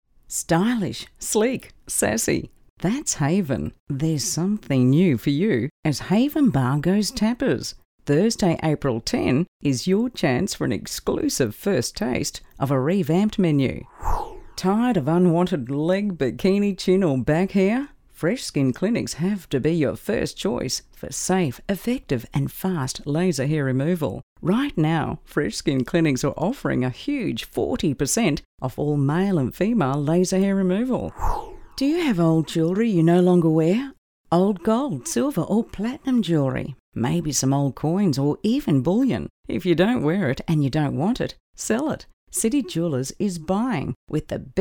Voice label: Warm Friendly